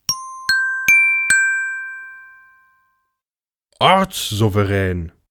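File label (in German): "ortssouverän", German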